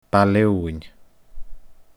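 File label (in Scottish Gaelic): "Baile Eooghainn", Scottish Gaelic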